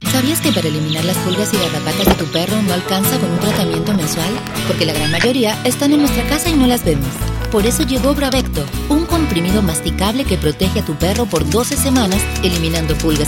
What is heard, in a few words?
neutro; hablados